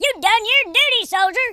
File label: "excellent", Drill Sergeant; speech